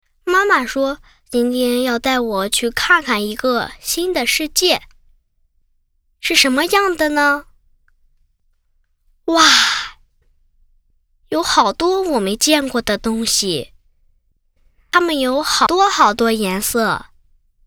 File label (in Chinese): 男真童; 真童配音